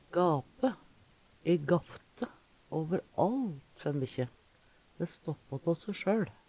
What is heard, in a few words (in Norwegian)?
"gape", Numedalsmål; Verb